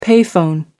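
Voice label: "pay phone", British English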